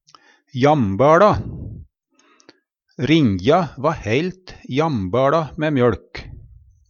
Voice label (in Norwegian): jambaLa, Numedalsmål; Adjektiv